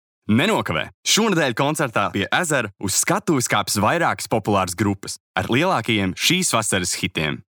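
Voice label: Reklāma, Latvian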